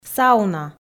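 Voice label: "сауна", Komi, female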